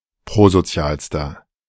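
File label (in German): "prosozialster", German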